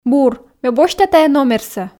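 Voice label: "бур ме босьта тайӧ номерсӧ", Komi, female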